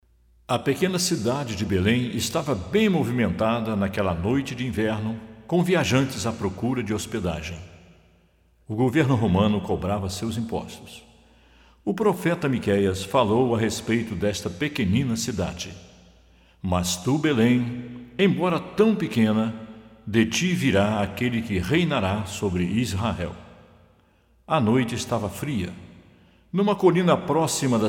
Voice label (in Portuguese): Narração